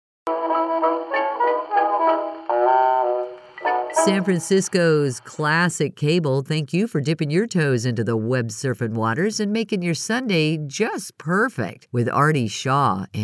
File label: Radio